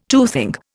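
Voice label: "toothpaste", Indian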